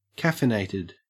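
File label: "caffeinated", Australian English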